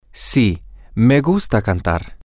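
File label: yes I like to sing, Spanish, male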